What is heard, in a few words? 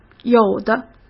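"有的", Mandarin Chinese; hsk; chinese-flashcards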